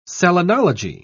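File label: "selenology", British English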